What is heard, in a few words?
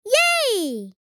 Yay excited boy child kid